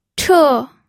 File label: "che4", pinyin